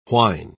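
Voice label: "whine", English word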